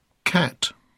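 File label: "cat", English; poitiers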